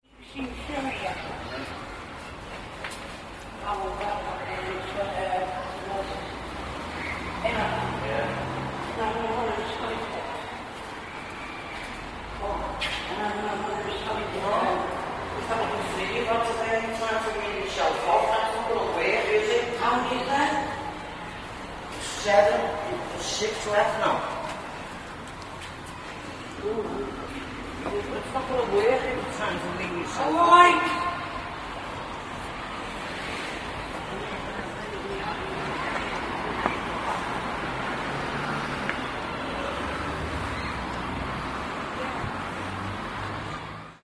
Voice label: geordie accents in st matthews underpass ipswich

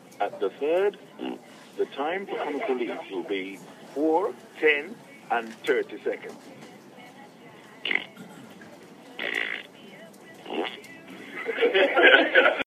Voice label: speaking clock

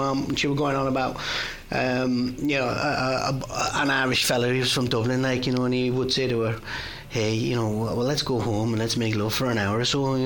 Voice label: accents

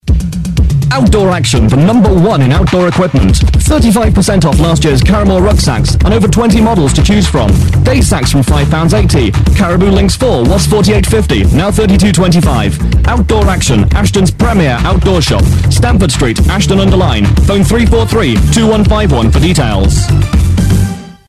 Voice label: radio commercial voiceover

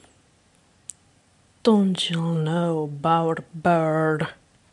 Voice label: voice acting

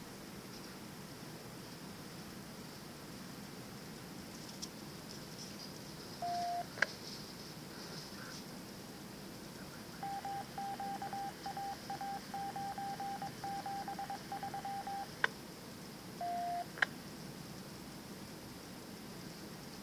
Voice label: newsroom scanner traffic is sparse; cctimes

